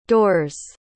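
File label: "doors", English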